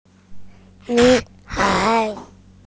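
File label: "girl", Mandarin Chinese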